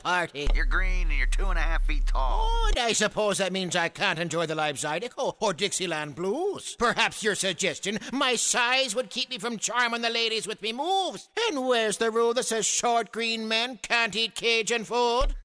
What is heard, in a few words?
LEPRECHAUN, IRISH IRELAND